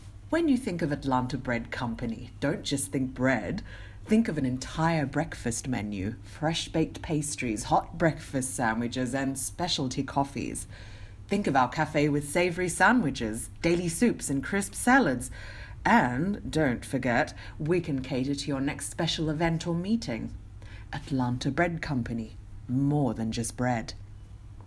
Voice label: British